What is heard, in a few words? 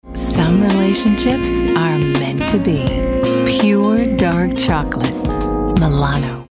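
US commercial soft sell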